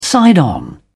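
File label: "side on", British English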